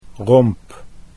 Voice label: "romp", Dutch